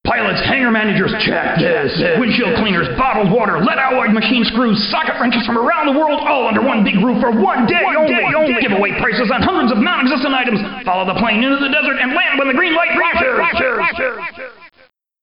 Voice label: Commercial; SimCopter; Follow the Plane into the Desert